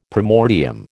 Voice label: "primordium", American